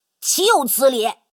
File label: Speech Quick Commands Caramba